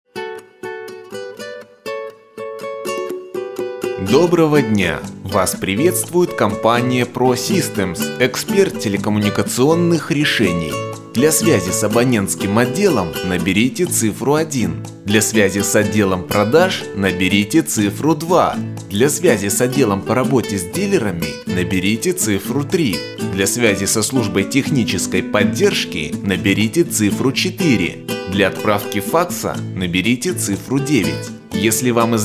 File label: IVR